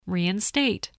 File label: "reinstate", spelling voice